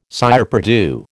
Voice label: "cire perdue", American

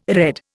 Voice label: "redd", Indian

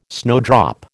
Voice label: "snowdrop", American

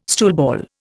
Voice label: "stoolball", Indian

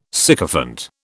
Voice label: "sycophant", British

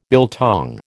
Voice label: "biltong", American

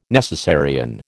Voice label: "necessarian", American

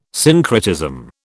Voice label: "syncretism", British

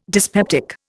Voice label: "dyspeptic", Indian

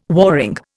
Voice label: "warring", Indian

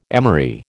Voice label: "emery", American

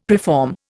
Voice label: "preform", Indian; Dictionary

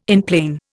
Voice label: "enplane", Indian

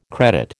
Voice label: "credit", American

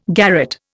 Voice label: "garret", Indian

